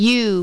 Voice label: "U" Female; Mac Concentration Sounds; Letter & Number Sounds

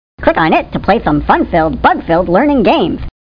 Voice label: Narrator; How Many Bugs in a Box

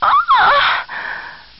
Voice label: GIRL

